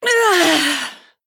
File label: Female Human Death